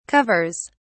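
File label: "covers", British English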